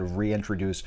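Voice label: "reintroduce", American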